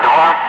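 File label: "5", spoken; Ka-50; Wingman; Digits; DCS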